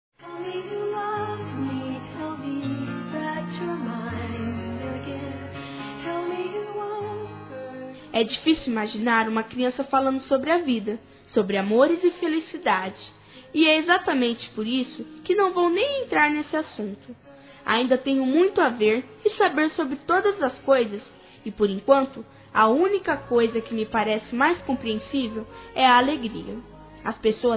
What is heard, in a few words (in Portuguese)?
NEUTRO INFANTIL F, Telemensagens Aniversário Infantil